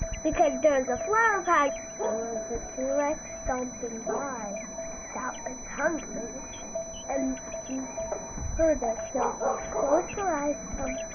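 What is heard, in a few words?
ambient rural child talking severe with tinnitis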